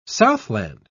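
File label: "southland", English, British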